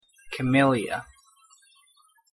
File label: pronunciation en camellia